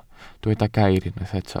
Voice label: "gair", Welsh

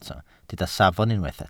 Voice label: "safon", Welsh

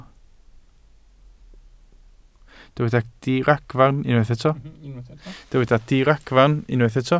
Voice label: "diragfarn" Welsh

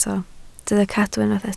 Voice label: "cadw" Welsh

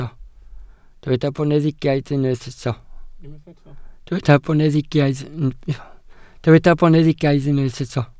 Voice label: "boneddigaidd", Welsh

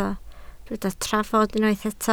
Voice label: "trafod", Welsh

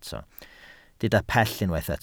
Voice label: "pell", Welsh

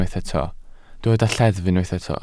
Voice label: "lleddf", Welsh

